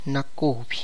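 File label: Tlacochahuaya Zapotec; new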